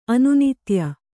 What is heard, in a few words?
"ಅನುನಿತ್ಯ", kannada